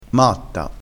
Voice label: "matta", crespinese

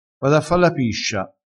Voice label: "fa la piscia", vocabolario dialettale

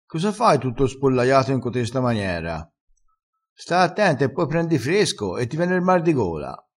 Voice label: "spollaiato", crespinese

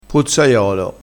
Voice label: "pozzaiolo", crespinese

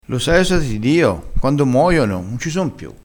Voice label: "moiono", crespinese

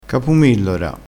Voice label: "capumillora", crespinese

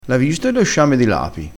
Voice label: "lape", crespinese

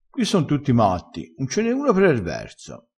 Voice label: "un esse per", dialettale

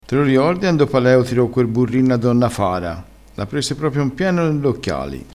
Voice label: "burrino", crespinese

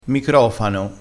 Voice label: "microfano", crespinese

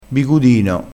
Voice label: "bigudino", crespinese

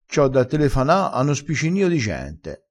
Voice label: "spicinio", crespinese

